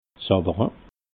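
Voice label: "zawere", Alsatian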